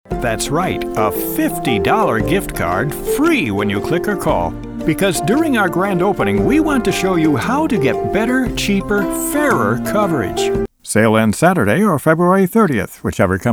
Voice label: Commercials